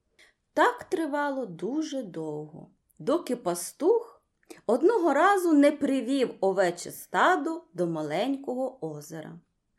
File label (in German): ukrainisch